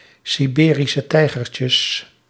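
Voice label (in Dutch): "Siberische tijgertjes", Dutch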